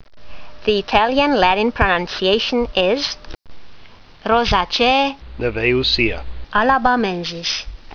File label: latin; Neviusia alabamensis